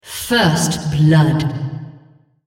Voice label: female, first blood

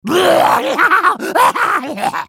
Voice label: spellcast effort; HyenaWarwick

